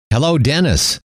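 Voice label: "hello", male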